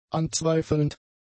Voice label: "anzweifelnd", German; adjectives

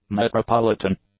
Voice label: "metropolitan", english; adjectives

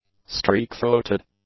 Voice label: "Streak-throated", English; nouns

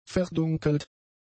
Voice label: "verdunkelt", German; adjectives

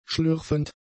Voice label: "schlürfend", German; adjectives

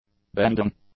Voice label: "bending", English; nouns

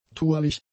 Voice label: "tourlich", German; adjectives

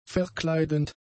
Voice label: "verkleidend", German; adjectives

